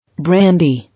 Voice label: "Brandy", English